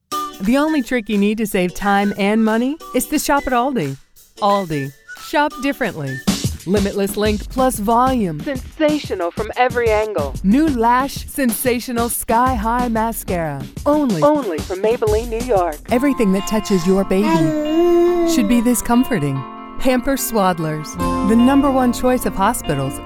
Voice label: commercial demo